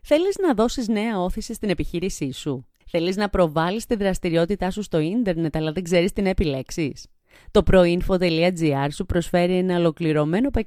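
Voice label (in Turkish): Yunanca Kadin, Greek female voice; yunanca seslendirme; kadin sesler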